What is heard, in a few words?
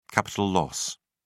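"capital loss", British English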